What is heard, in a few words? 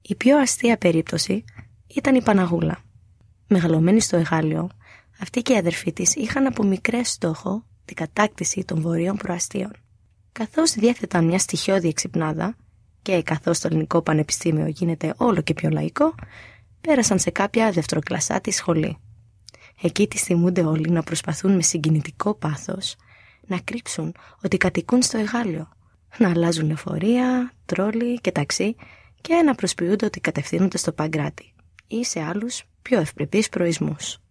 Greek